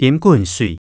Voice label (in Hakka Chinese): 啉滾水, 客語